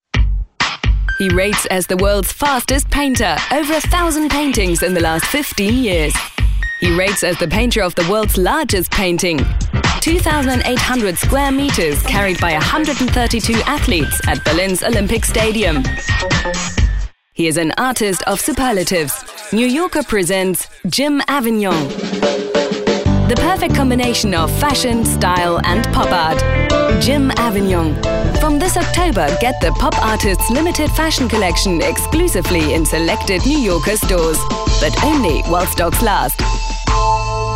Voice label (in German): Eng UK Werbung Variabel